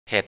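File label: "hèt" Thai, 'mushroom'; เห็ด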